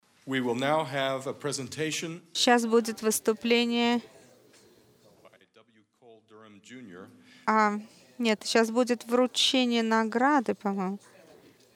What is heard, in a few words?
Russian; iclrs symposium 2009